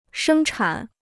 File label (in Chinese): "生产", Chinese